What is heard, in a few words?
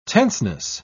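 "tenseness", British English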